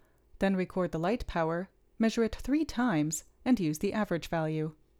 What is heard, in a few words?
Gong Voiceover